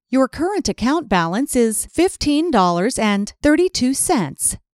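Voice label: Full Current Account Balance, IVR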